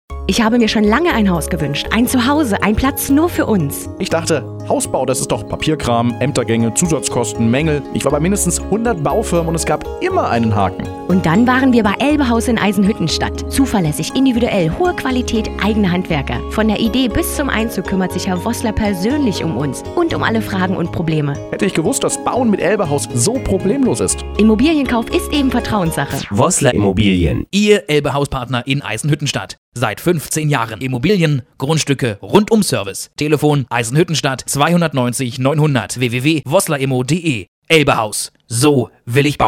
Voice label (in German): Radio Spot